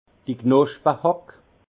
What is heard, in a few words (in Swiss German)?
"knospehack", alsace; vin; griffe à jointer